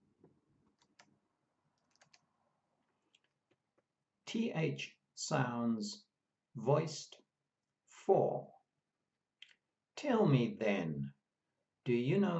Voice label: Th sounds voiced, pronunciation of australian english